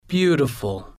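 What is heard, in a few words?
beautiful, slow; english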